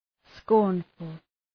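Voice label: "scornful", English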